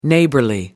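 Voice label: "neighborly", British English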